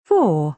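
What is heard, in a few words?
"four", British English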